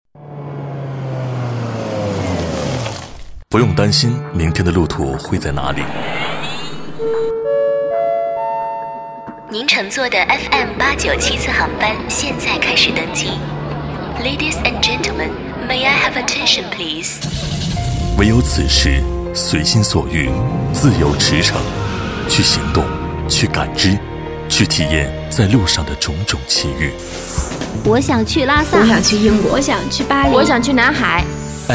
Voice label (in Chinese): 男60号 节目包装 台宣; 交广主播